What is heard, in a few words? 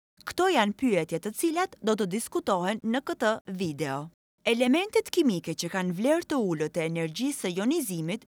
Albanian